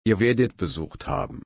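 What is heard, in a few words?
"besuchen", German, slow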